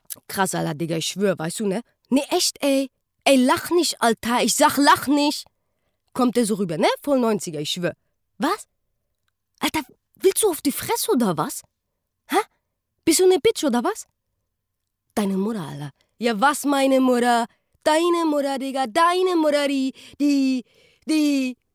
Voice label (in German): Synchron